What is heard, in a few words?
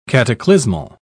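"cataclysmal", British English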